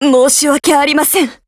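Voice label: LOW MOOD, Japanese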